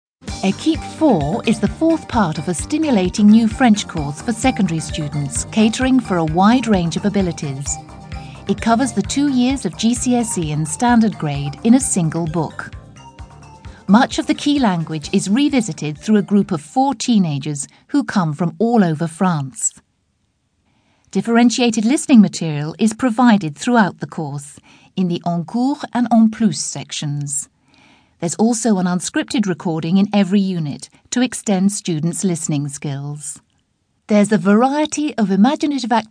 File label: educational